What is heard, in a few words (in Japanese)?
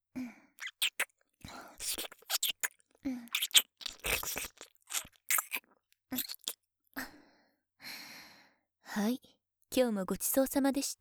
ピロートーク, ASMR; ド S クーデレ年上嫁と